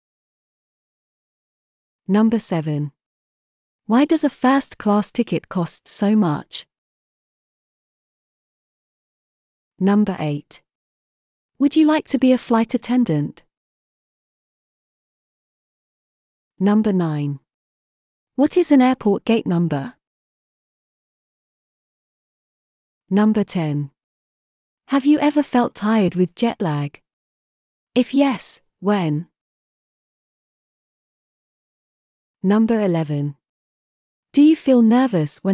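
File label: spelling practice air travel, British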